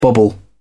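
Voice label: "bobble", British English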